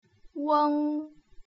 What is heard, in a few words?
"ueng", putonghua